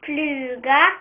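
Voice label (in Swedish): "fluga", Swedish; ktuberling; tdegames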